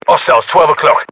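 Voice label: threat infantry generic, battlechatter British; localized english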